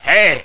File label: Man "Hey"